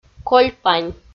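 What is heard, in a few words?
"кольпань", Komi, female; kpv; audio dict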